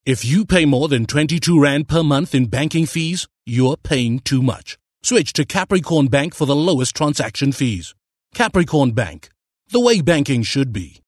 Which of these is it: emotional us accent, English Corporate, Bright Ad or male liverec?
English Corporate